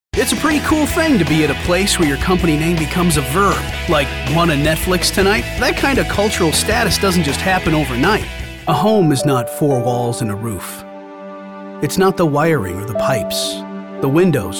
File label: commercial; The Bridge Recording Studio LLC.